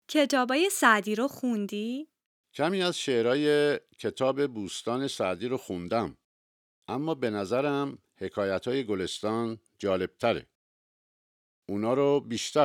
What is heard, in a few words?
Dialogue lesson Farsi